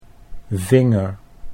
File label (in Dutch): "vinger", Dutch